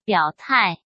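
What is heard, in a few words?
"表态", Mandarin Chinese; hsk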